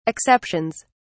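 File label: "exceptions", British English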